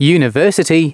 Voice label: announcements male